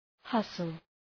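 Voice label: "hustle", English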